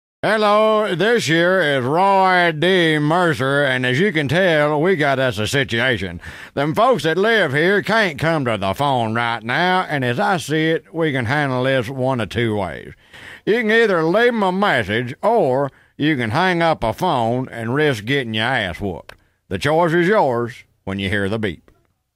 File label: Answering Machine Message; Roy D. Mercer Vol.6